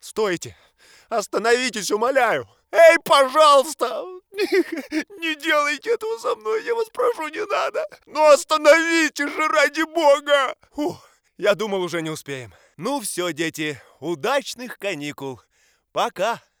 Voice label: Rus